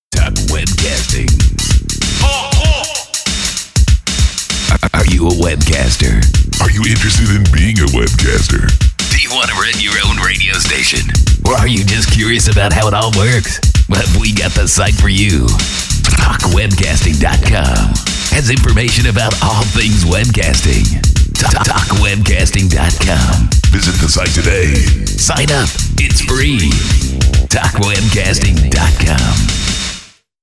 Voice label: Ad hiphop feel